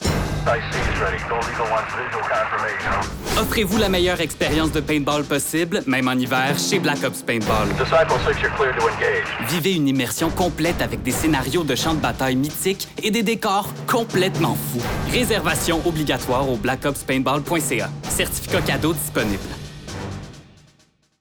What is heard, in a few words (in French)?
Publicité; Paintball